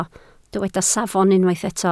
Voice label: "safon", Welsh